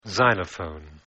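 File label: "xylophone", English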